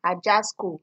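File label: "ajasco", Nigerian Pidgin, female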